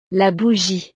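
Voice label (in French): "la bougie", French; navidad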